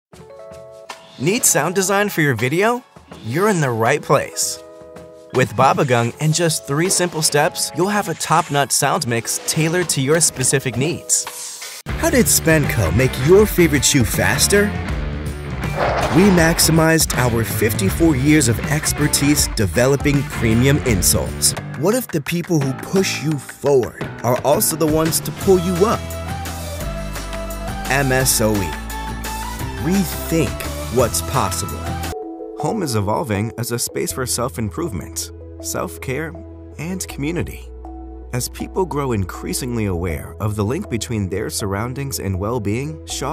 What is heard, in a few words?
VO Commercial